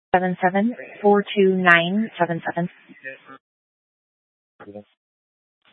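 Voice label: Police 1 Dispatch, Scanner audio recording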